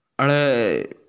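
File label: "le4", Mandarin Chinese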